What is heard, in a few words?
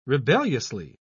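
"rebelliously", British English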